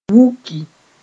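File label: "wuki", Mooré; sulk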